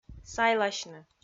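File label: "сайласьны", Komi, female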